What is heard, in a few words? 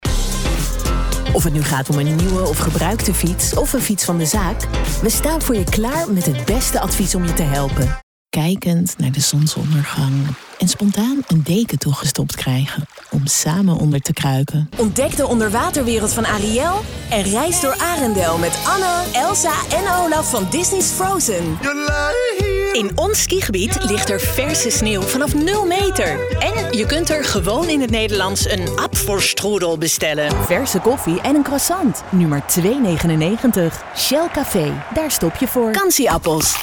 STEM DEMO, commercials